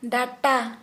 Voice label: Santali Tooth